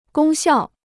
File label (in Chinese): "功效", Chinese